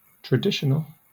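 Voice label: "traditional" English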